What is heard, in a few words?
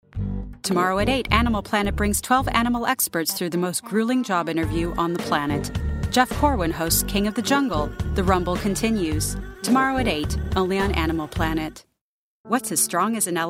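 American English, General; GoLocalise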